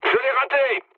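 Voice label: bomb failure, mood low, French; War Thunder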